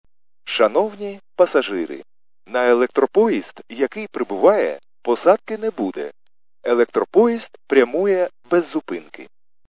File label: khs st male, subway station